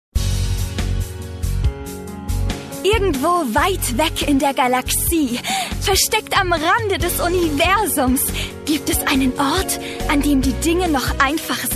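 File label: german female commercial; voice demos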